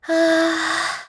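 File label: Vox Think, voices heroes English; kingsraid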